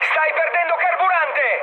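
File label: Italian tank damaged mood high, speech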